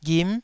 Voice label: "ghim9", Taiwanese syllable

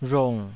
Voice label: "rhung3", taiwanese

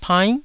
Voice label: "painn9", taiwanese

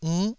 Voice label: "inn9", taiwanese syllables

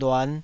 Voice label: "luan5", Taiwanese

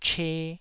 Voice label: "che2", taiwanese

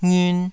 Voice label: "ngiun2", taiwanese syllables

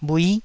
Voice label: "bhui9", taiwanese Syllables

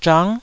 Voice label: "zhang9", taiwanese

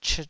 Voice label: "chit2", Taiwanese

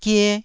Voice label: "gie1", Taiwanese syllable

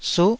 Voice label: "suh9", taiwanese Syllables

